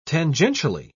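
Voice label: "tangentially", British English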